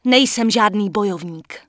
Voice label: Human Female Official NPC Vendor; wowpreklad; czwow